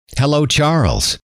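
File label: hello, male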